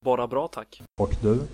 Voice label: fine, swedish